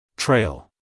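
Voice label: "trail", American English, male; everyone-can-use-english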